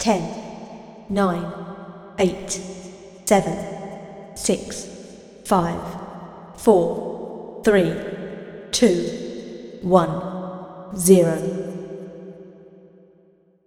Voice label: female microphone countdown